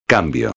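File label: "cambio", Spanish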